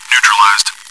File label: chitchat, bot, voice commands; svencoop